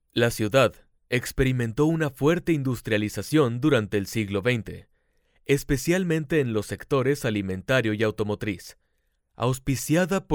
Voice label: mexican voiceover latin american spanish voiceover, locutor de español neutro; locutor latinoamericano

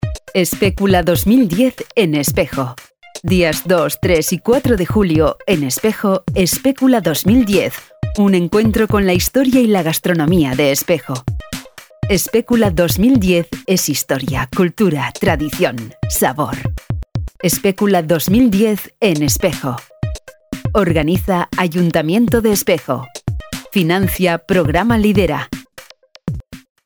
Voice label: locutora spain; Spanisch Sprecherin

